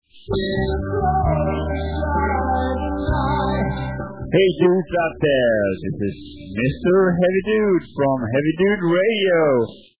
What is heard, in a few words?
Heavy Dude Radio